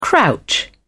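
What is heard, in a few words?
"crouch", British English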